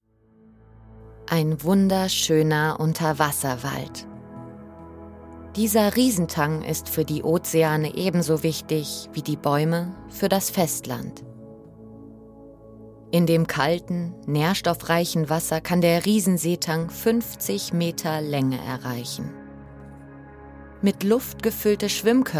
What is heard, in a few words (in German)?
Doku Natur, German; Seeotter; Doku, Commercial (Werbung), Tale (Erzählung)